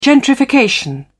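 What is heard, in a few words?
"gentrification", British English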